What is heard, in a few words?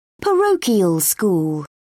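"parochial school", British English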